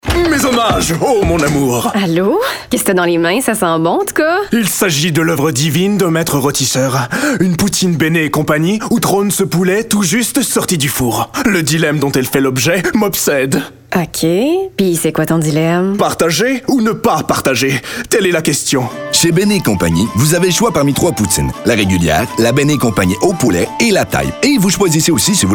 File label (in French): pub radio